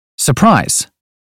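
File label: "surprise", British phonetics; 英语音标发音（剑桥版）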